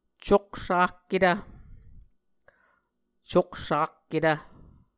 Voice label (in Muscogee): "coksakketv", Muscogee; Mvskoke Words with Repeated Consonants; A Dictionary of Creek/Muskogee; SIL International